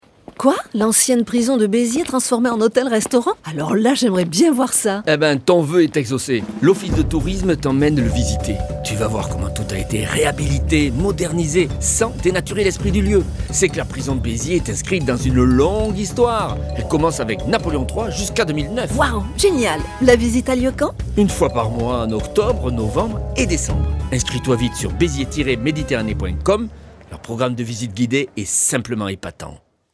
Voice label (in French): spot radio; beziers prison